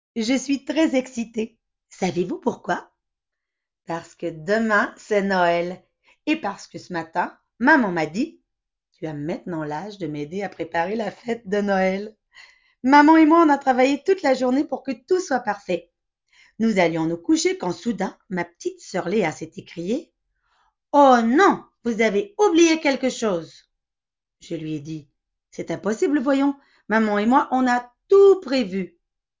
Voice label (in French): Demo voix francais normatif